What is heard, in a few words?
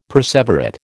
"perseverate", American